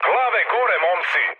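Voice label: Serbian near waypoint mood high; speech; War Thunder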